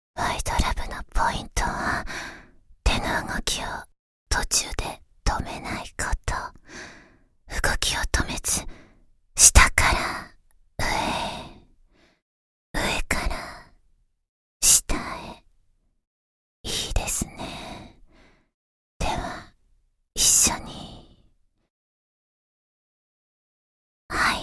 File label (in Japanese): （ささやき）